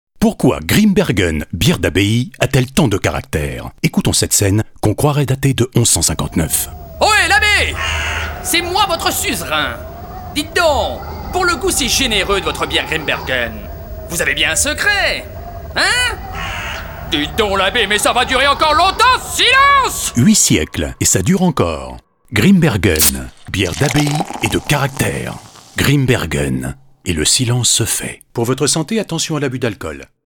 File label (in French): pub radio; Grimbergen